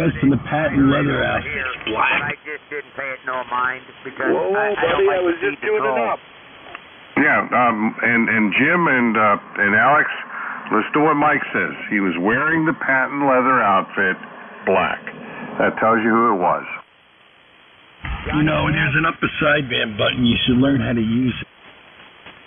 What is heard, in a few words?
reacts calmly to a simple tuneup carrier 3860 kHz; f0 tuneup carrier